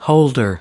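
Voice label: "holder", American English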